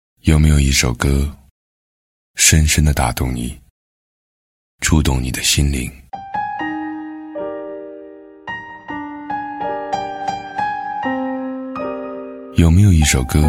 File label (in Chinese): 男 深情磁性, 国语男声配音